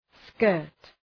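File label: "skirt", English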